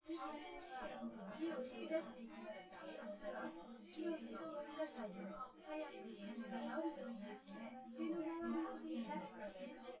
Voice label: female synthconv